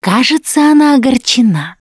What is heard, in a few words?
heard witch, Russian; Voice; Producer